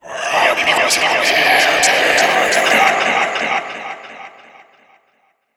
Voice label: Stalker Assassin Whispering; Warframe